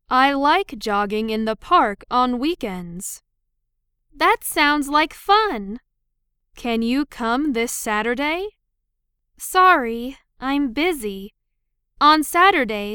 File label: Dialogue Medium; chikara eigo jyuku; Lesson 4